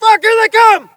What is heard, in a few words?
spot; bf3